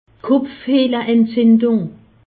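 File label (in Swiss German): "kopfhehleentzindung", Alsatian; medecine; sinusite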